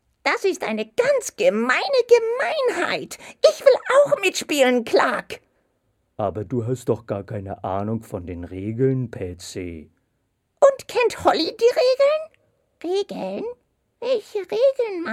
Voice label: Animation, GERMAN, MALE